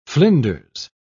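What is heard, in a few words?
"flinders", British English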